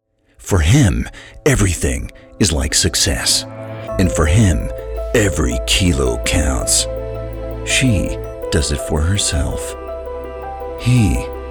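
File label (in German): Werbung, US English, Cool; Foodspring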